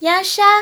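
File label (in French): "chat", French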